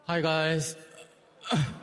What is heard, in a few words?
speaking english for the fans; faker